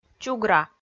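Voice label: "чугра", Komi, female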